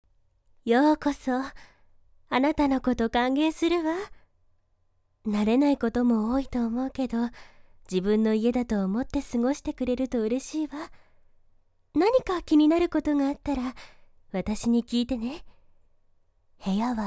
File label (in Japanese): oneesan